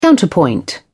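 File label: "counterpoint", British English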